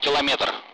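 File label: Speech Sound Russian Common Allied Flight; kilometer; DCS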